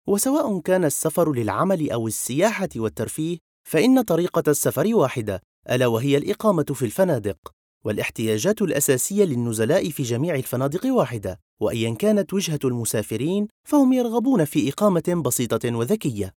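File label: Syrian narration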